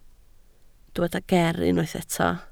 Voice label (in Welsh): "ger" Welsh